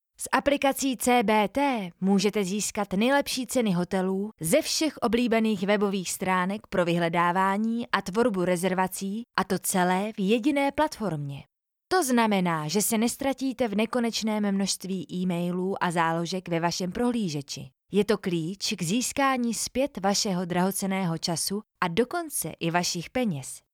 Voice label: czech e-learning